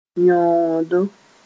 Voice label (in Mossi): "yõodo", moore